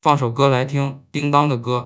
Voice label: synthesized; fast speech2; AISHELL3